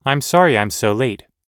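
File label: everyday apologizing, American English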